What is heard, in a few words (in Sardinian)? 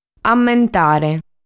"Amentare", sardu